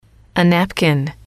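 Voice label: "napkin", English, female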